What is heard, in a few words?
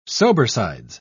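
"sobersides", British English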